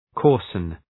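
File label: "coarsen", English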